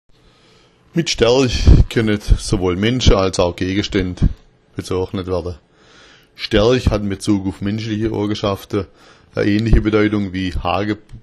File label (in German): staerch, schwaebisch